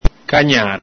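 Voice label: "cagnare", Veneto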